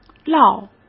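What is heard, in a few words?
"涝", Mandarin Chinese; chinese flashcards; hsk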